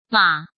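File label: "Mǎ", Chinese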